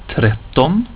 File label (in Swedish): "trett", swedish; word of day